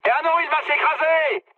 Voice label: aircraft destroyed mood high, speech fr